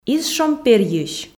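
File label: "из шом перйысь", Komi, female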